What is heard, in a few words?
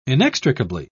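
"inextricably", British English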